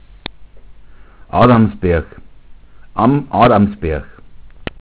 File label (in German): adamsberg, bayerischen Dialekt; am adams berch; Flurnamen; Lateinische Sprachrelikte im bayerischen Dialekt; Heiligenbrücken, 85072 Eichstätt, Galtür